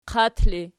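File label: "qat" ergative, Archi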